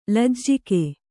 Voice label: "ಲಜ್ಜೆಗಾ", Kannada

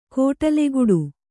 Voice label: "ಕೊಡಲಿಗಡಿ", kannada

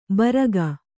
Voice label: "ಭಾರಿಕ", Kannada

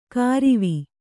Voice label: "ಕಾರಿವಿ", Kannada

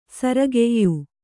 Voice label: "ಸೊರೆಗಾಯ್", Kannada

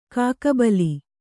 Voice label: "ಕಾಕುಬಲ", Kannada

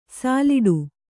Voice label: "ಸೊಲ್ಲಿಡು", Kannada

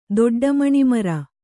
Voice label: "ದೊಡ್ಡಮಣಿಮರ", Kannada

